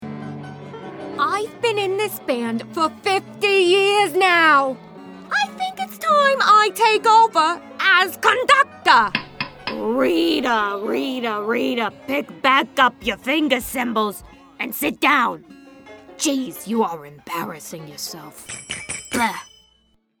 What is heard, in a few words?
old ladies